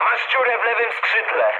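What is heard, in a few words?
left wing, mood low, Polish speech; War Thunder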